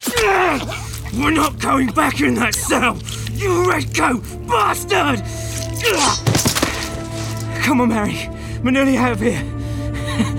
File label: westcountry bristol voice videogame